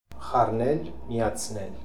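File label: "Harnelmiatznel", pronunciations; Fourth Way; Beelzebub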